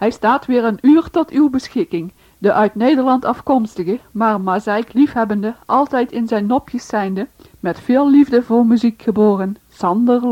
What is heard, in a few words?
Start-shout